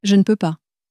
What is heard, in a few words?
"je ne peux pas", French